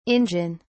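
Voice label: "injun", British English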